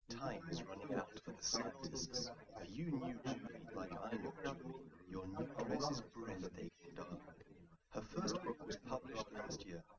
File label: speaker rec; forschungsprojekt trennung